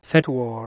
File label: "fedwor", Proto-Germanic